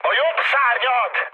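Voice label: Hungarian right wing mood high, speech; War Thunder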